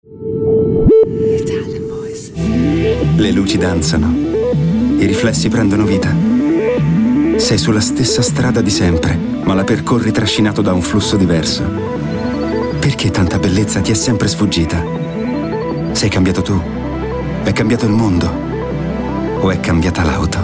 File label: ITALIAN VOICES Intense Wild, male voices